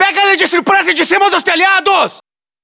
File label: hostile burst, battlechatter; cod modern warfare 2